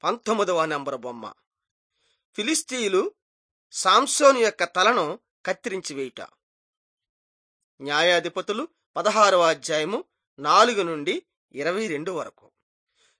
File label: telugu bangladesh